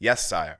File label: battlemage ack, voice acknowledgement